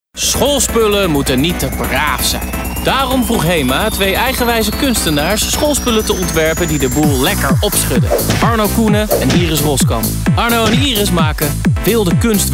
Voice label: Voice Selling